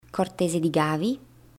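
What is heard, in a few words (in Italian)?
Cortese di Gavi, Pronunciation Guide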